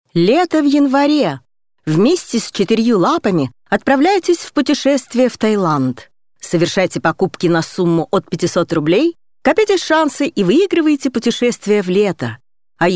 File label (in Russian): reklama